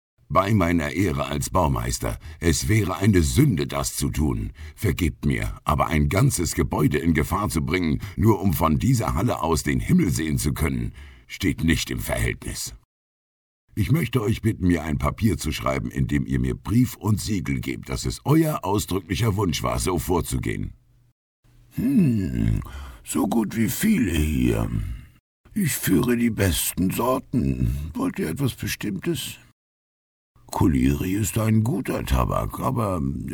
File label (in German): Hoerspielrollen